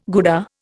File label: "Gouda", Indian